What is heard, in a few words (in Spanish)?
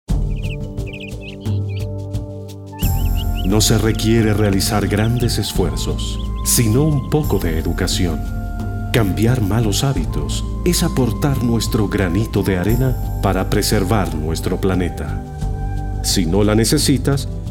Cápsula Medio Ambiente PUBLICIDAD